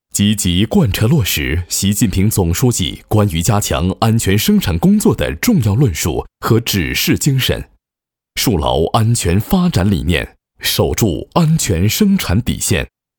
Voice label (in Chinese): 男 党建; 为积极贯彻落实习近平总书记关于加强安全生成品